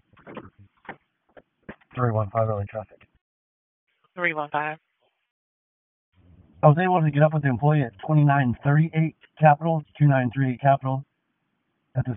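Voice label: Police District Dispatch; Raleigh Police Northeast District Disp; wake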